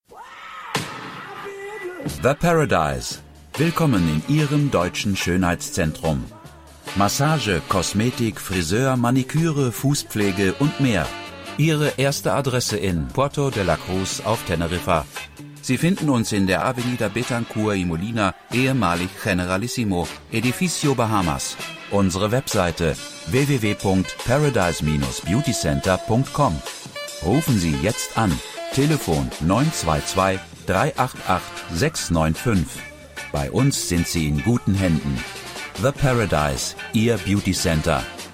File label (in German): Werbung